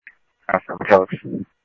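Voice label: police radio dispatch, ScottsdalePolice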